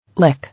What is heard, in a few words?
"Lick", English